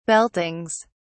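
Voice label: "beltings", British English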